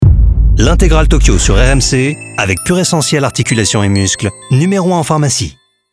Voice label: billboard; Puressentiel RMC